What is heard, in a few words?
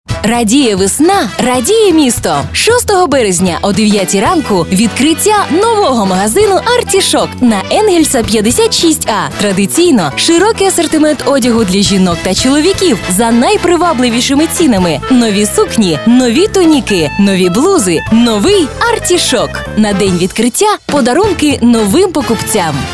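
ukr, Ukrainian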